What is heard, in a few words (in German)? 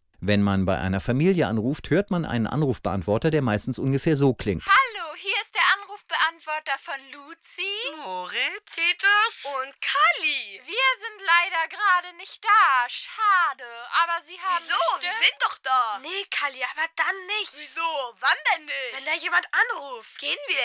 Familienanrufbeantworter; der kleine erziehugsgratgeber